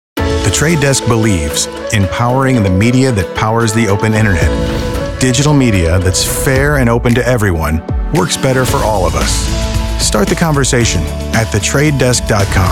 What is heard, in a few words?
Commercial